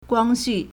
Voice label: "guang1xu4", Chinese